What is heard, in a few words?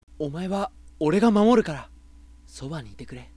sample sweet hi, voice